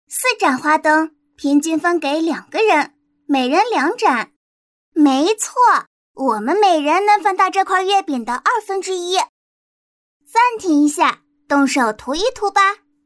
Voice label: 课件女童

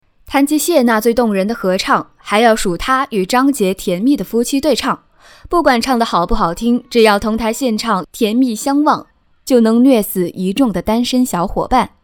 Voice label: 演绎类

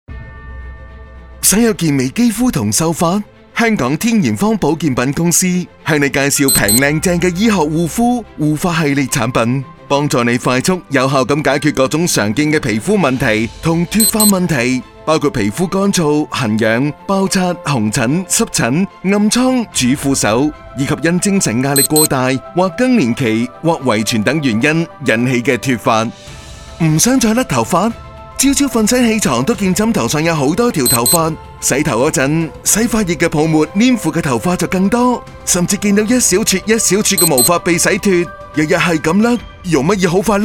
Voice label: 粤语方言 护肤护理广告 男

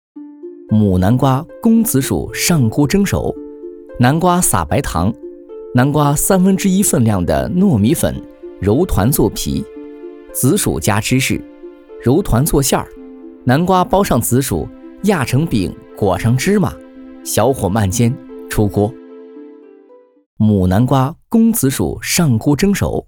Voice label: 抖音广告自然讲述教你做菜

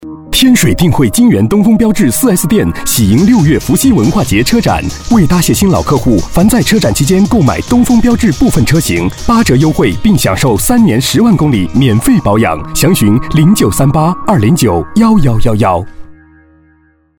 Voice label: 动感男声

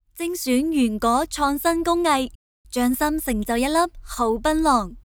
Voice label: 粤语样音店铺广告; 海南槟榔

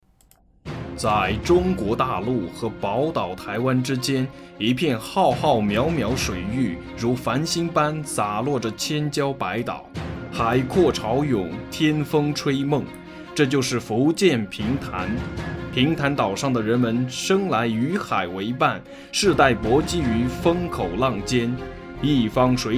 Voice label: 城市宣传

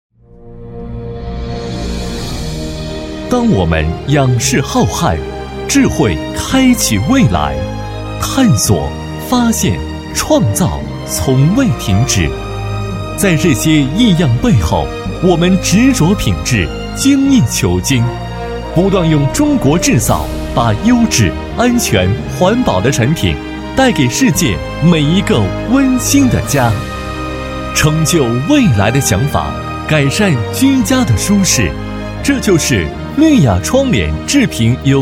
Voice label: 中国制造企宣

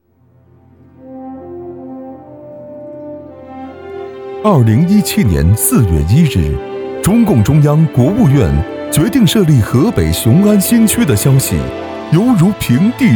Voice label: 大气深情雄安新区

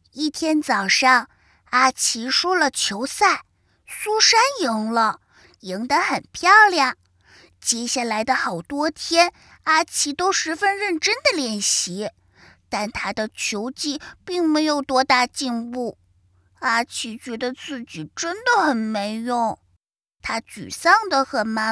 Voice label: 童男幼男童讲述

